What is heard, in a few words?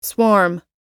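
"swarm", American English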